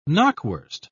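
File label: "knockwurst", English, British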